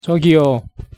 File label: pronunciation ko 저기요